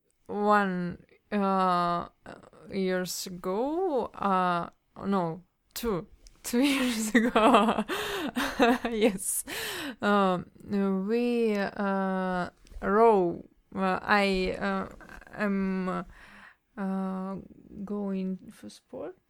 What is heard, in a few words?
Russian WF Siberia Age20; Slavic